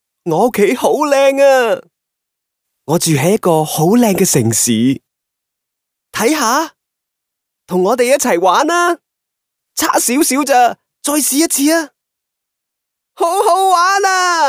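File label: Cantonese male children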